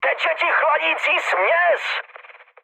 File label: damaged water, speech cze, mood high; War Thunder